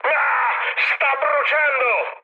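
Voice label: Italian on fire mood med, speech; War Thunder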